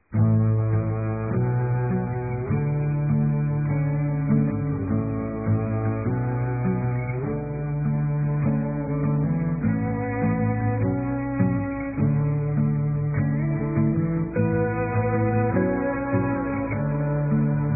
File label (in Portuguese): Dia das Mães Irmã Feminino; Telemensagens 2017